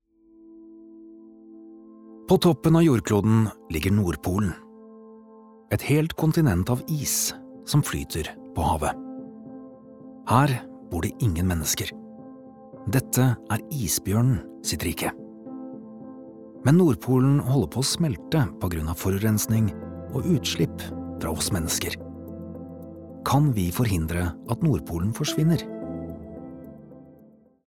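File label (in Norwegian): no dokumentar, Norwegian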